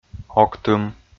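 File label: "октым", Komi, male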